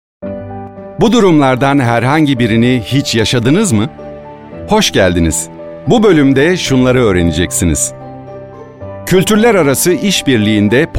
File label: elearning